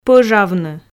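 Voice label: "пӧжавны", Komi, female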